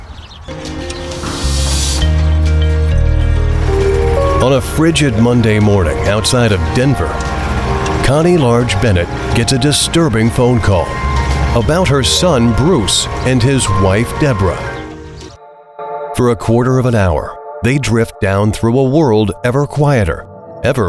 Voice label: US English Documentary

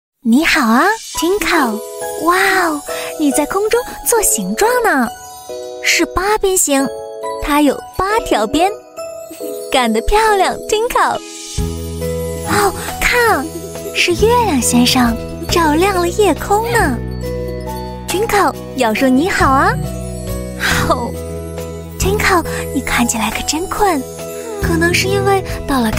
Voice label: Mandarin China Narration Bedtime; Little Baby Bum

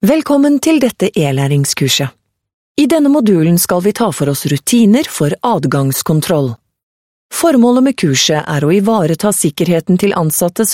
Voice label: Norwegian eLearning Sample, Norwegian Female

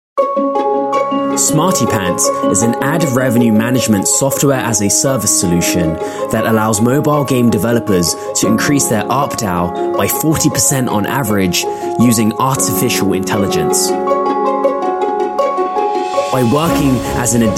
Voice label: UK English Smartie-Pants Explanatory

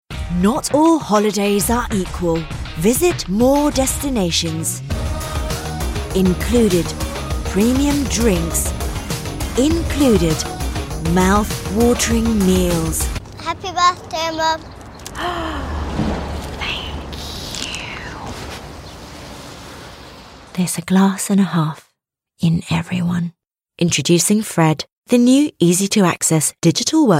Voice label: UK English Corporate Commercials

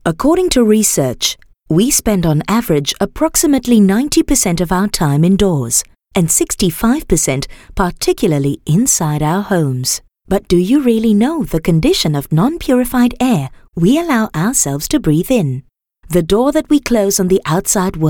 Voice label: Asian English Corporate Read

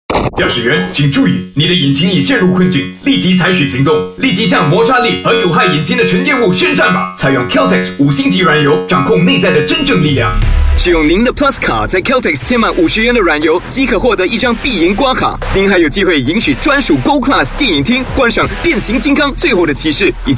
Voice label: Mandarin Radio, Male; China; Caltex Transformers

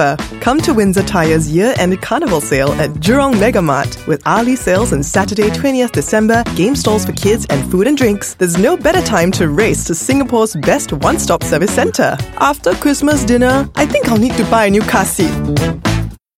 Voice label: Asian English Tires

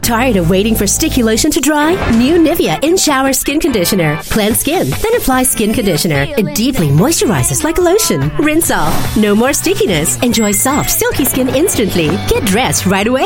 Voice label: Asian English commercial; Nivea Body Shower

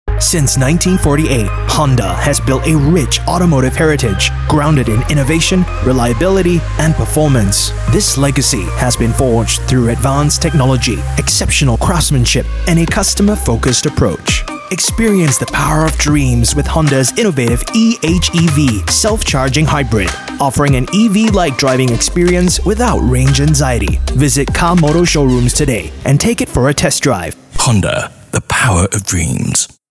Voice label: Asian English; Car Expo

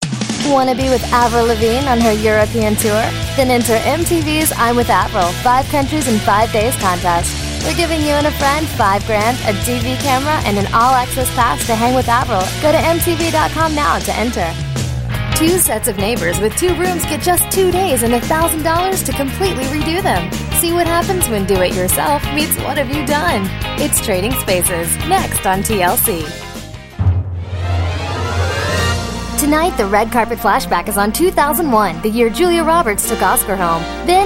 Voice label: US English

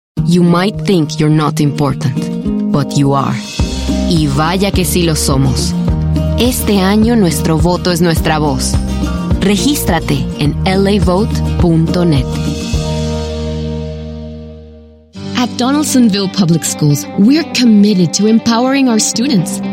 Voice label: US English Latino Accent

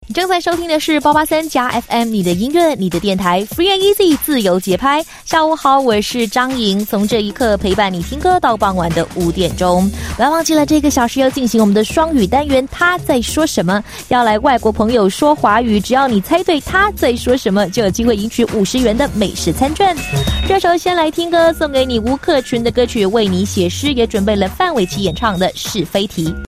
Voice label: Talkbreak Mandarin F, China Female

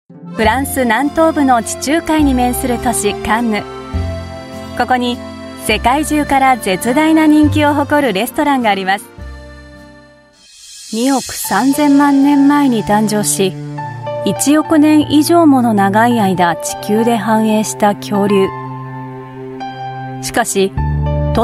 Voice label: Japanese Mixed Voice Sample

